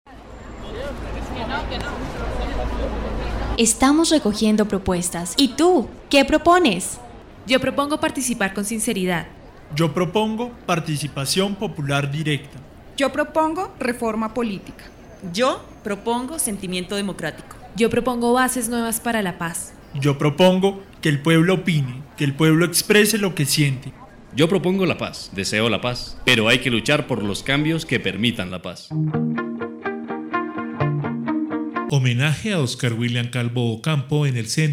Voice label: spot